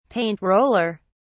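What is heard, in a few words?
"paint roller", British English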